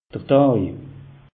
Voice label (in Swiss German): "daj", alsace; meteo